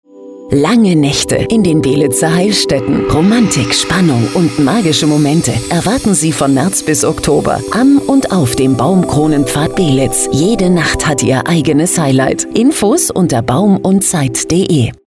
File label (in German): Baumkronen Frau